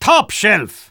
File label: Spy positive vocalization